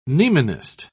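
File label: "mnemonist", British English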